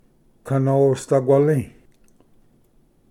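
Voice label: "kanosdaquali", Cherokee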